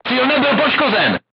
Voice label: voice message armor not pierce, crew tanks czech